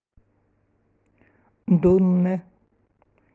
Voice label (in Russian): Край эвенкийский язык